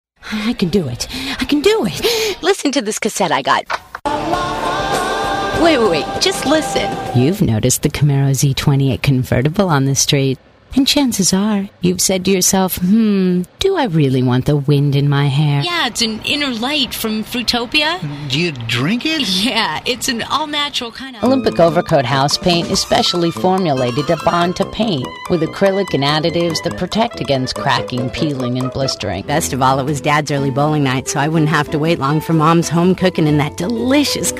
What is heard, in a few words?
VO DEMOS - Women